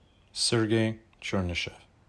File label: how to pronounce Sergey Chernyshev in English